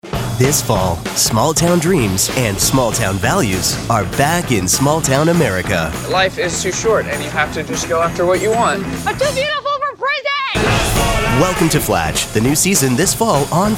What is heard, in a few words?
promo men